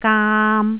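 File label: "gaam3", Cantonese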